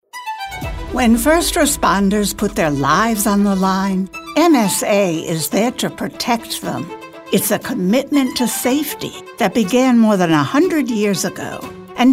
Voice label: Comforting storytelling from your mother or grandmother with a comedic twist.; MSA